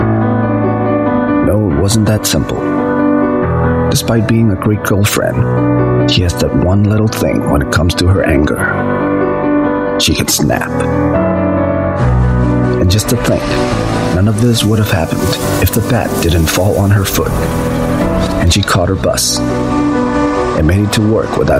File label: Asian English Dramatic Narration